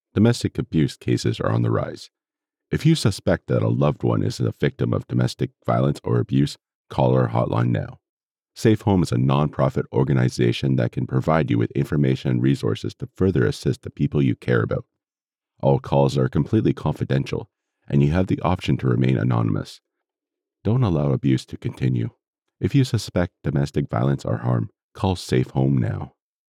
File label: VO Commercial; Safe Home